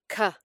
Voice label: "c" phonic; spoken English lanugage